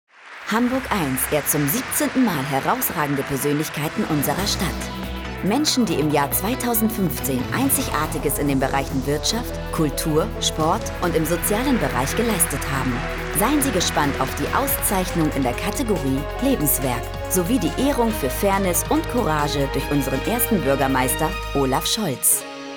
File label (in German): radiospot